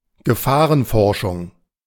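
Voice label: "Gefahrenforschung", German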